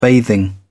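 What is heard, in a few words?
"bathing", British English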